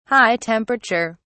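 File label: "high temperature", English, British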